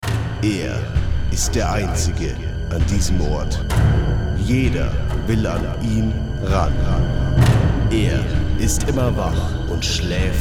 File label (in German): Werbung Sparkasse